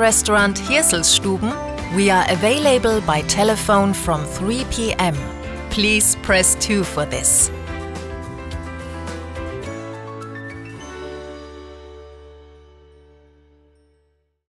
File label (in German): Hotel IVR, telefonansagen; Hotel Gabriela; telefonansagen hotels